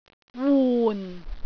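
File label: "wun4", Cantonese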